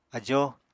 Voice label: "ajóo", apatani